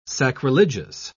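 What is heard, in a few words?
"sacrilegious", English, British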